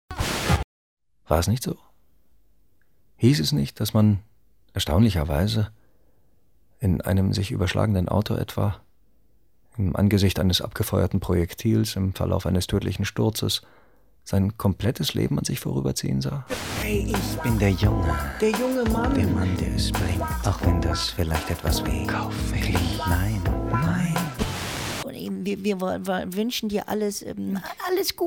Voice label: de lesung, deutsch maenner; sprecher

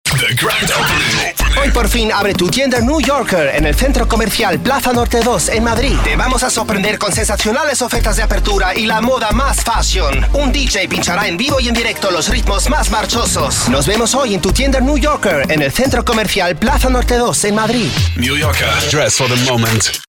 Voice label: werbung, spanisch

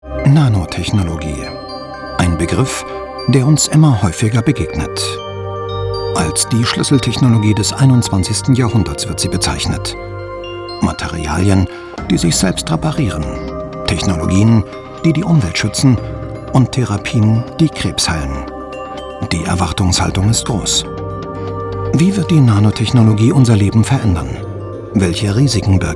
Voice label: Doku, deutsch maenner; sprecher

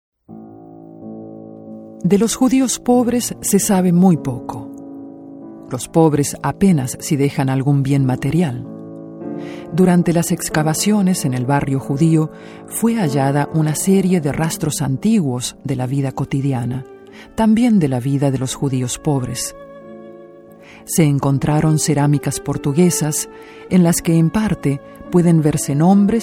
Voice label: image, spanisch

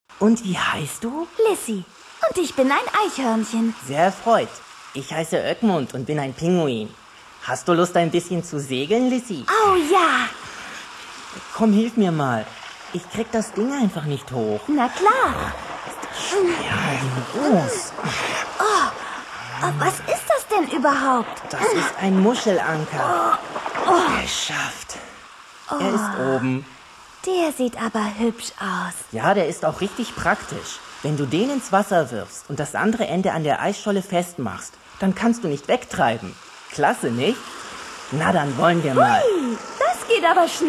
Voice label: hoerspiel, deutsch maenner; sprecher

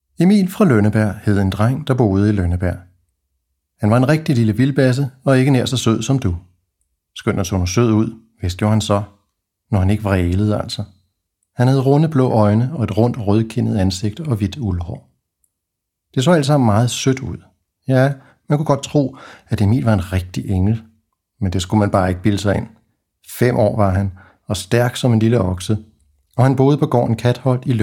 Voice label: erzahlung, daenisch

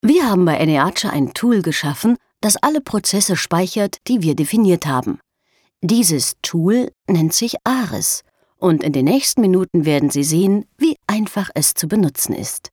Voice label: de elearning, deutsch frauen; sprecher

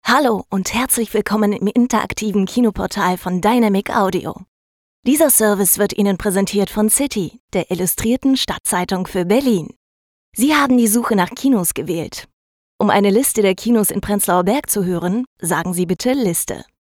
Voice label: telefon, deutsch frauen

